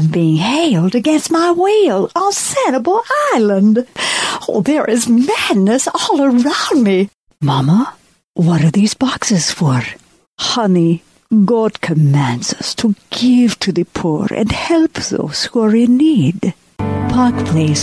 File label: Animation